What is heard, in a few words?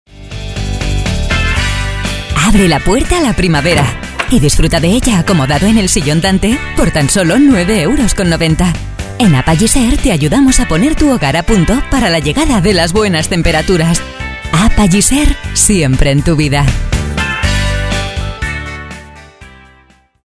Spanish commercial positive